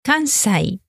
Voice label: "kansai", Japanese